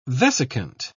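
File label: "vesicant", British English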